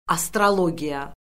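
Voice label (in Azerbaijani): "astrologiya", Azerbaijani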